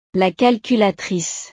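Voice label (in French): "la calculatrice", French; utiles escolares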